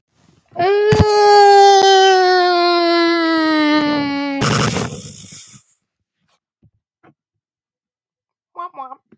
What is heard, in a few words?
voice message